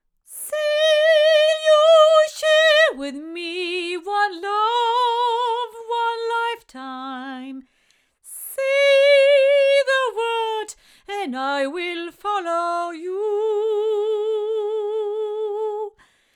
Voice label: Oktava MK220 cardioid female

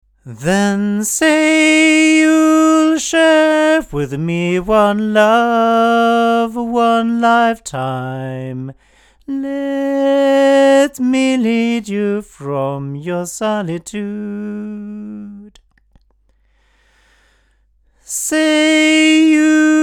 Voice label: MT184S male; TSM

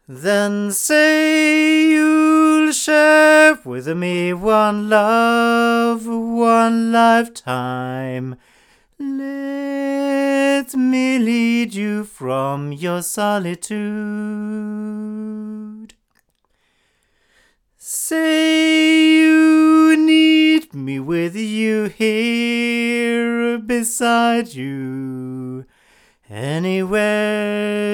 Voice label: sE 2A cardioid male, microphones; se electronics

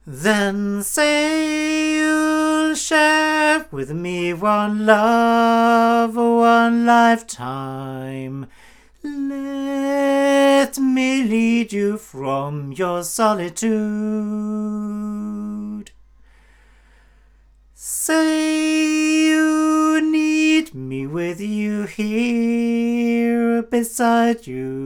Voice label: omni male, microphones; Lauten Oceanus

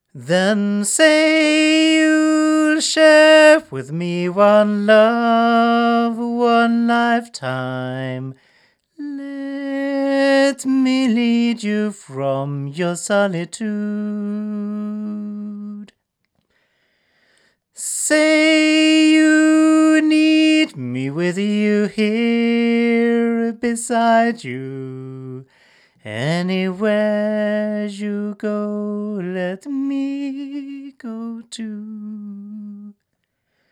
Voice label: The Ball male; BLUE

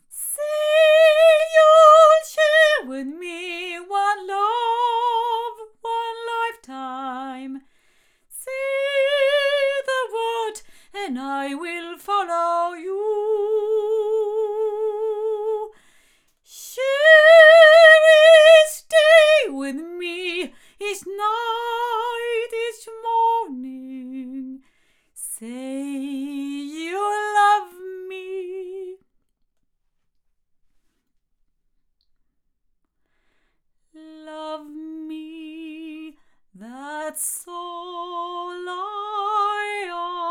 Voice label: omni female, microphones recordings; Generis GT2 Mk2

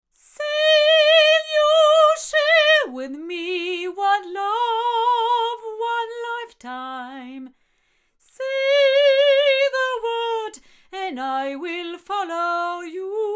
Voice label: omni female; studio projects

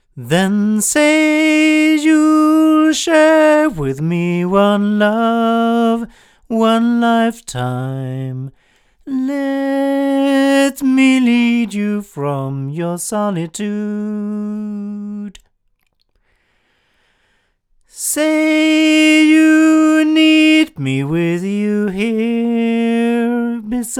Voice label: ADK A51TC male

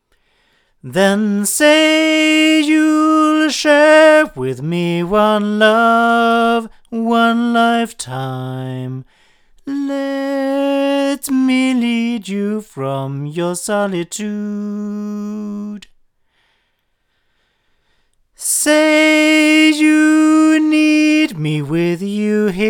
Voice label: ADK A48 8 male

